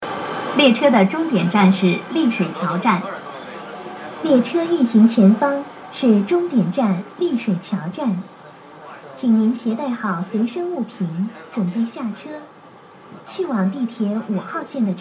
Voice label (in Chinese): 13号线 立水桥终点 预报到站